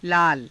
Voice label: "laala", Hindi; [形]赤い; लाल